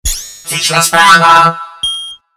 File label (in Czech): Elfi robot